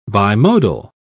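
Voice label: "bimodal", English, uk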